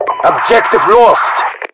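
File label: Russian lost obj, voiceovers; english; mpvoice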